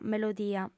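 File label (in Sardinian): "melodia", Sardinian, female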